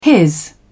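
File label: "his", British English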